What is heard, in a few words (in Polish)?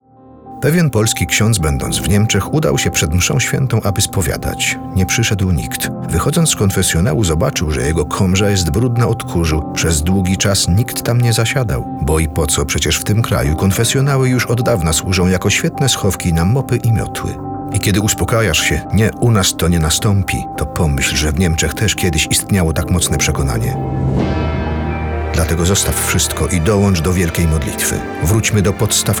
Spot; Polska pod Krzyzem; Srodki Czystosci